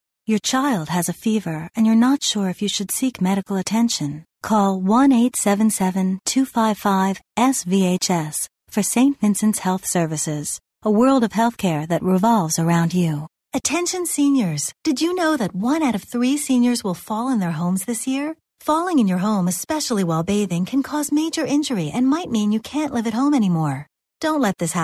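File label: American English, female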